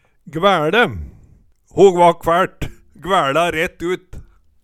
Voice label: gvæLe, Numedalsmål; Ho gvakk fæLt, gvæLa rett ut

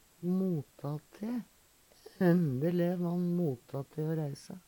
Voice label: mota te, Numedalsmål; mot til, innstilt på; Uttrykk

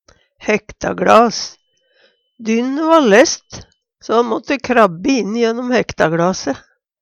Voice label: "hektagLas", Numedalsmål; Dynne va læsst, so dei måtte krabbe inn i gjø'nnom hektagLase.

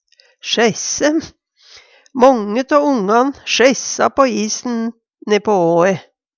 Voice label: o sjeisse, Numedalsmål; Månge tå ongan sjeissa på isen ne'på åe.

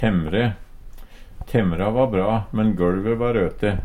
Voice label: temmre, Numedalsmål; Substantiv hokjønn; Temmra va bra, men gøLve va røte.

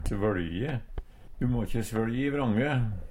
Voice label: svøLje, Numedalsmål; Verb

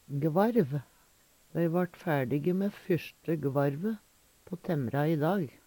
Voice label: "gvarv", Numedalsmål

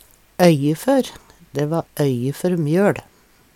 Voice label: øye før, Numedalsmål; tom for; Dæ vart øye før mjøL.; Uttrykk

